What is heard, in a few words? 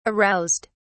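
"aroused", British English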